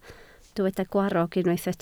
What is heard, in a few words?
"gwarrog", Welsh